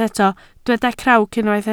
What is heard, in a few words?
"crawc", Welsh